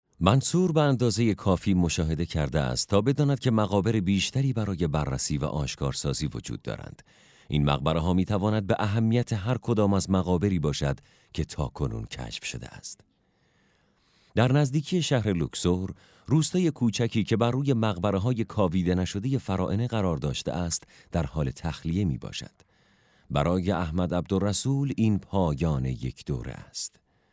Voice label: documentary